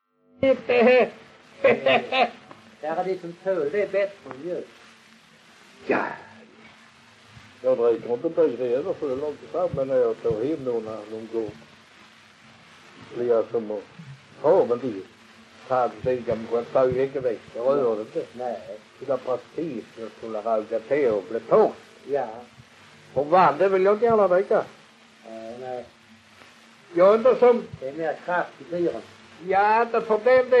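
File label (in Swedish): Allerum Skåne, Svenska sagor dialekter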